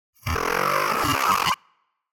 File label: Alien Vocal Sound Sci-Fi; Hollywood Edge; sound designer's toolkit v2